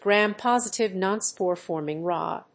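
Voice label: "Gram-Positive Non-Spore-Forming Rod", English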